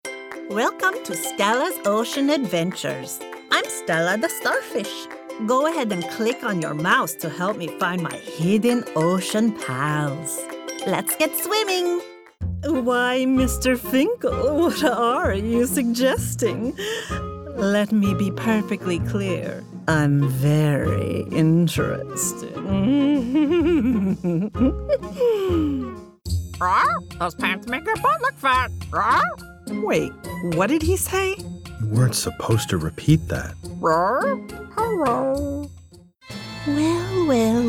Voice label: CHARACTER